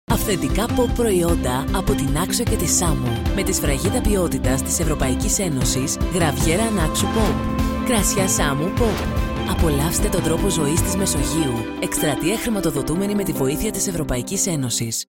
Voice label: greek tv shows